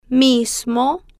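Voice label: "mismo", Spanish, female, slow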